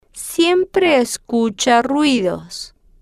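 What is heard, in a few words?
Spanish, female, slow; siempre escucha ruidos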